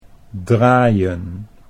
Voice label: "draaien", Dutch

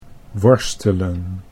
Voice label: "worstelen", Dutch

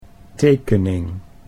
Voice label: "tekening", Dutch

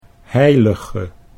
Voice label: "heilige", Dutch

